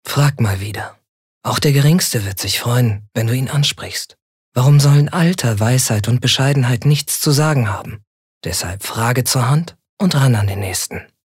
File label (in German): Werbung Sanft